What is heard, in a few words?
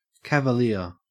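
"cavalier", Australian English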